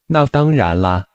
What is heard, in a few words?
Mandarin Conversation